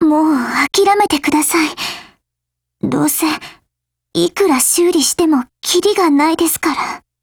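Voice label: Japanese; Ribeyrolles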